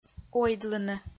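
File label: "ойдлыны", Komi, female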